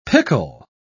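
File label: "picul", British English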